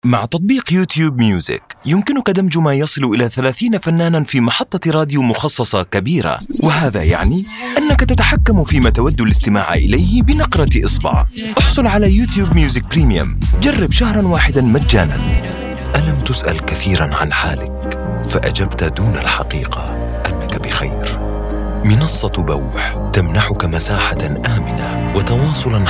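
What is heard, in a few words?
Arabic Commercial; GoLocalise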